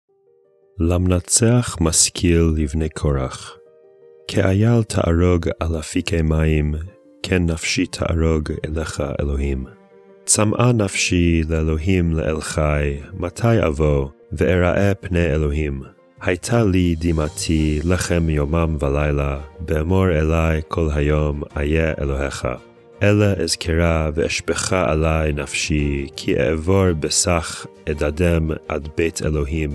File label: poetry and prayer psalm hebrew